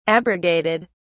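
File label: "abrogated", English, British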